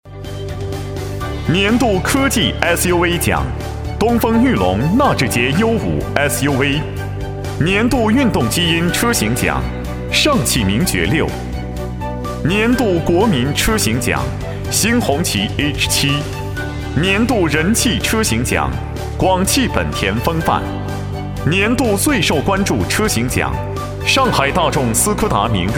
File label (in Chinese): 专题 颁奖 年度车型奖 年轻, 男